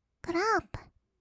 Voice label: "クラップ", kawaii voice; 音ゲー

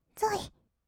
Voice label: "ぞい", kawaii voice; 1200 kawaii voice!!; 色々なワンショット

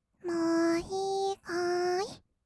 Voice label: "もういいかーい？", kawaii voice; ASMR; 色々なワンショット

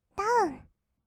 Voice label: ダウン, kawaii voice; 音ゲー

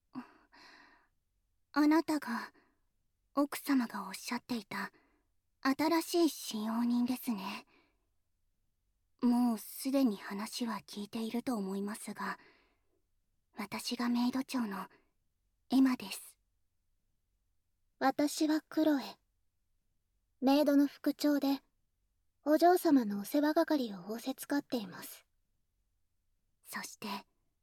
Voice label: 両耳囁き; ASMR; 両耳囁きで身体チェック

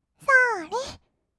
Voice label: "そーれ", kawaii voice; ASMR; 色々なワンショット

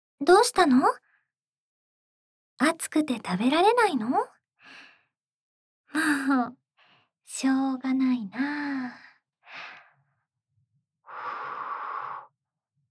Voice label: 幼稚園児扱い甘々セリフ

